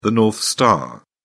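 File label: "north star", British English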